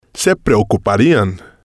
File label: "se preocuparian", spanish